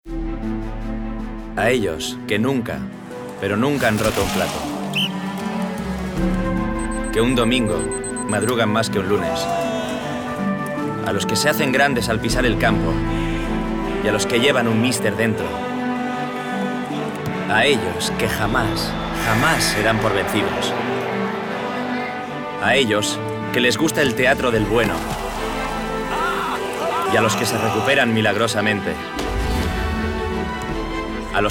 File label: male spanish food comercial; ideasonora